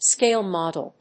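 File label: "scale model", English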